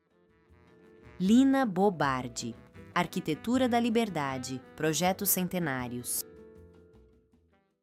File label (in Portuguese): narracao; degraus vermelhos